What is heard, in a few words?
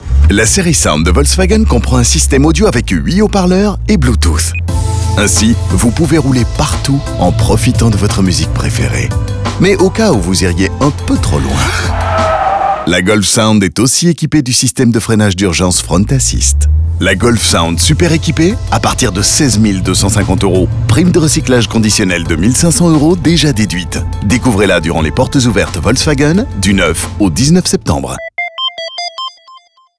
radio fr; sound serie; new music; volkswagen; ddb